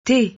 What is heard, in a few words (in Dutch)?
"thé", Frans; thee-in-andere-talen